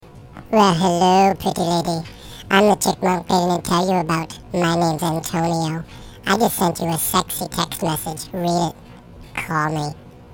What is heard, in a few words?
Chipmunk Text